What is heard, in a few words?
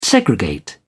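"segregate", British English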